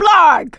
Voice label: reaction negative, survivor voice; producer